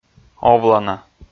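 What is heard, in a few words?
"овлана", Komi, male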